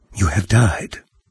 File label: die, narrator; Fate The Cursed King; en-US